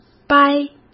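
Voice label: "掰", Mandarin Chinese; chinese-flashcards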